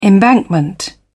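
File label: "embankment", British English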